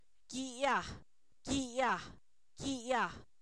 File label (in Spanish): flor, Zapoteco, san miguel albarradas; INALI